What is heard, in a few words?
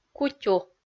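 "кутю" Komi, female; kpv audio dict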